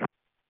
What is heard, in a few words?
Space to Ground; Expedition 39